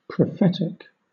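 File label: "prophetic", British English; pronunciations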